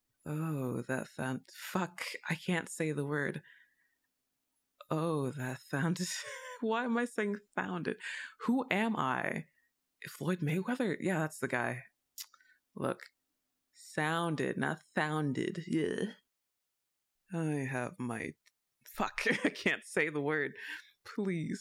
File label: Voice Crack; Bloopers; Jerma Level; Forgetting English